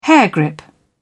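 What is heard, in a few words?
"hairgrip", British English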